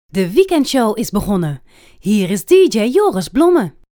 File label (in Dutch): Radio jingles De weekendshow